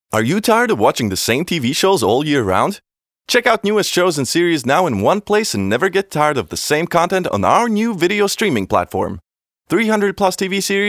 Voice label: ENG Reklama